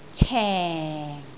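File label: "ceng4", Cantonese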